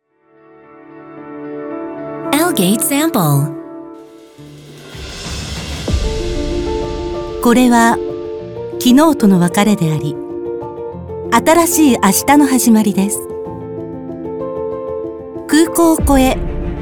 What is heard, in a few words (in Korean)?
일본 여 차분한톤